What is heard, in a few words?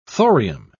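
"thorium", British English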